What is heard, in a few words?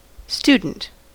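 "student", American English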